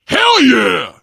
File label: taunt, biker